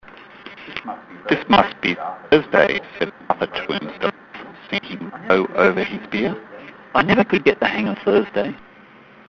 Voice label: Synthetic